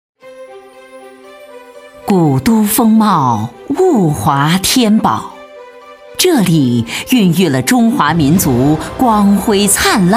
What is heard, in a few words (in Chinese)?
男11 专题合声; 普通话; 男11号（文化、娓娓道来）; 浙江杭烟